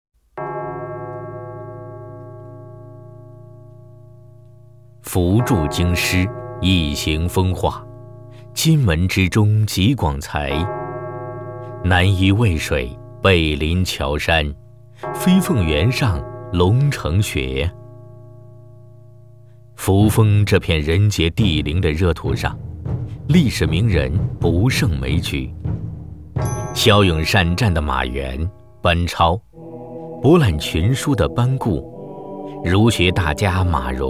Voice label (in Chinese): 【专题】, 国语; 历史人物